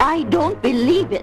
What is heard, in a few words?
i don't believe it, british dame